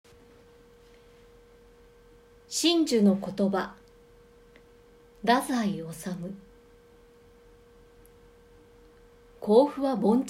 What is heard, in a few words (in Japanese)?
朗読; 新樹の言葉